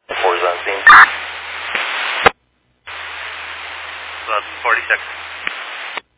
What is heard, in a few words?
Fire Dispatch